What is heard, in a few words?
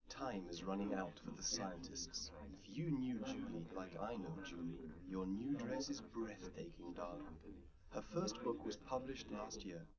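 male, live recording; SiSEC11; underdetermined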